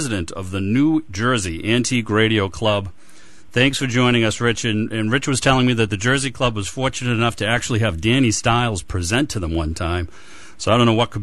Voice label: Tube Talk interview